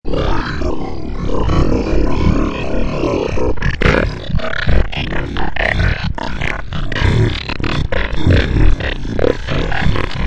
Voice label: weird alien speech

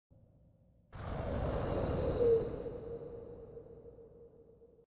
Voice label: echo of unknown words; پژواک کلمات نامعلوم

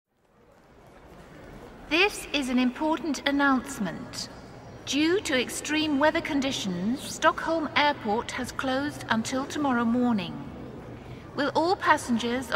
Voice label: Airport Announcement English

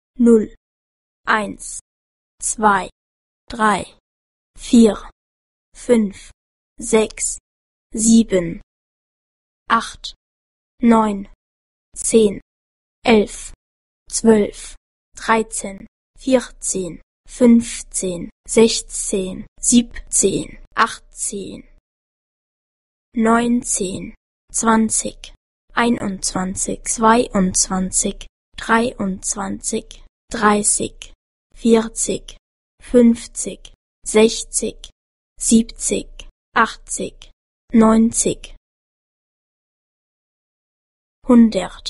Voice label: german language

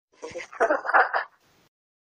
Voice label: Granny

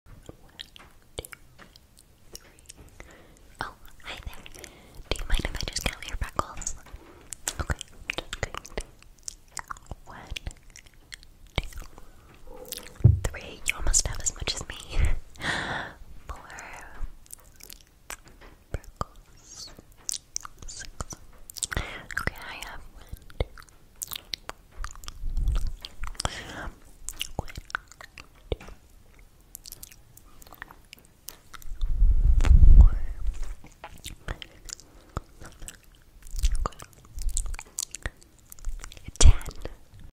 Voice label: whispering asmr; اسمر زمزمه

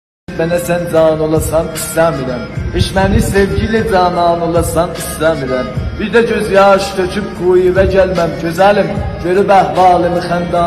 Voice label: دکلمه ترکی

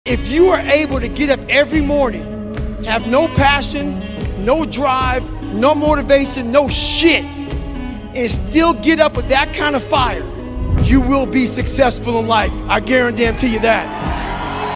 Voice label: sports motivational; جملات انگیزشی ورزشی خارجی

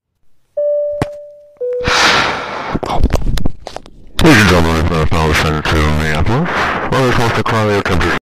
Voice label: Pilot conversation; مکالمه خلبان با مسافران

